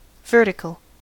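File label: "vertical", US English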